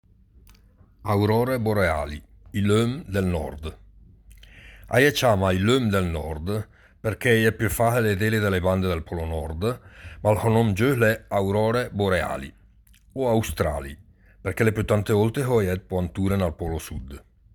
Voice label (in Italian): dialetto lumezzanese